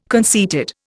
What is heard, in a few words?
"conceited", Indian